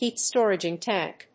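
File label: "heat storaging tank", English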